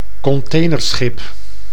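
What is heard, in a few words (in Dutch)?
"containerschip", Dutch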